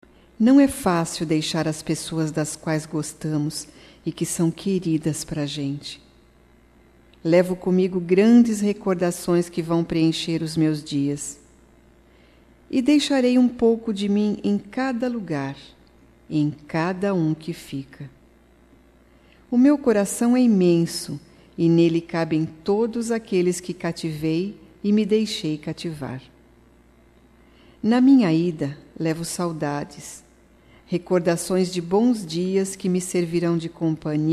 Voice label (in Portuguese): DESPEDIDA (DE QUEM VAI) F, Telemensagens